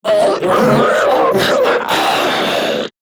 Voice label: Death Grineer Manic; Battle Barks